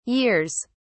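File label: "years", British English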